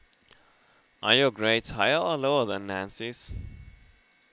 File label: Non-Native M